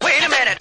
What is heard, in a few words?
"wait a minute", male; Voice